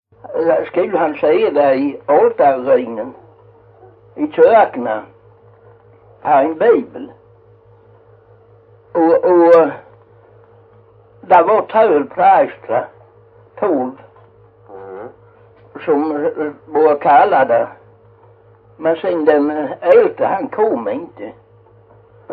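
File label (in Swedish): Jämshög Blekinge, Svenska sagor dialekter; ena-mål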